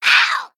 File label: Female Human Death